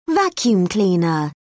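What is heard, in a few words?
"vacuum cleaner", British English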